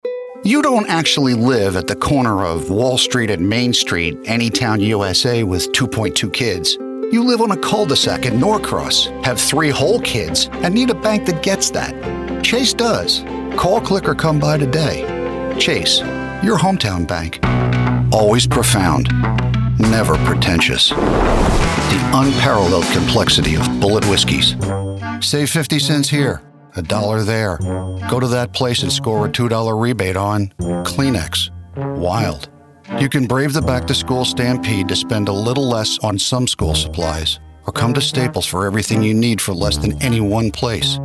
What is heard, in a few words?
Commercial